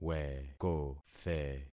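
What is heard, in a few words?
"wekothe", concatenated syllables; Affixing experiment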